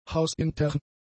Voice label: "hausintern", German; adjectives

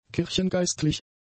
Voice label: "kirchengeistlich", German; adjectives

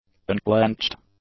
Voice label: "unclenched", English; adjectives

